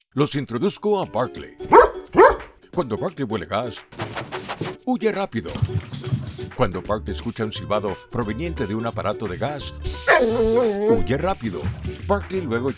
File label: Spanish